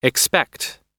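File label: "expect", American English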